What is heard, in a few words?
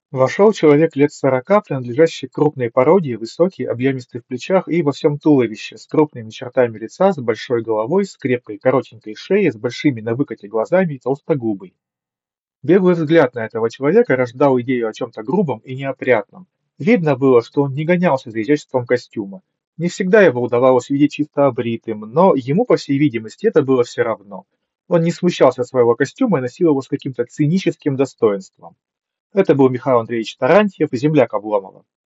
Mic; Запись с микрофона гарнитуры; UGREEN MAX5C